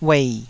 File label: "uei3", taiwanese